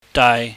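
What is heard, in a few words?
"daai", Cantonese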